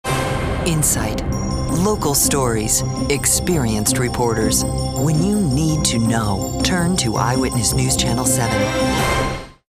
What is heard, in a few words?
authoritative